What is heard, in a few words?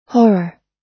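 "horror", US English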